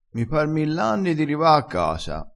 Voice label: "mi par", crespinese, vocabolario dialettale

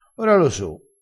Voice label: "so", crespinese

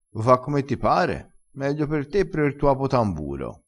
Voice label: "meglio per te tamburo", crespinese, vocabolario dialettale

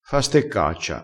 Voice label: "fa steccaccia", crespinese

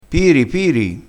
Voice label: "piri piri", crespinese

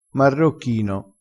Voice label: "marrocchino", crespinese

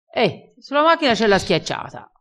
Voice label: "levassi la sete", crespinese

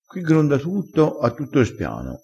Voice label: "gronda", crespinese

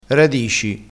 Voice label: "radici", crespinese

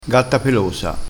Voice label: "gatta pelosa", crespinese

